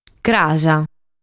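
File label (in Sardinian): "Cras", Sardinian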